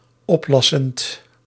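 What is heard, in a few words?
"oplassend", Dutch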